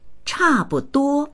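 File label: "cha bu duo", Mandarin; 差不多; ほとんど同じである、だいたい; dictionary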